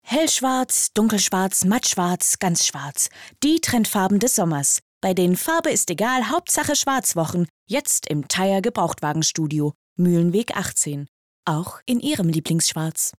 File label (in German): Werbung, Commercial (Werbung); Supermarkt Commercail; Schwäbisch